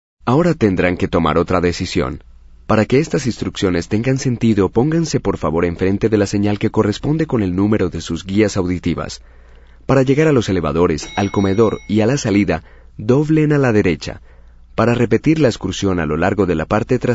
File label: audio spanish